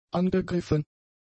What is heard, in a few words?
"angegriffen", German; adjectives